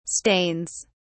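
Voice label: "stains", British English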